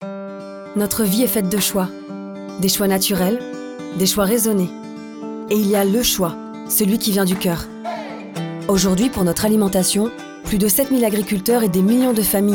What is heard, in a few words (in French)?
voix off; BBC